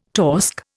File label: "torsk", Indian